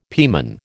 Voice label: "pieman", American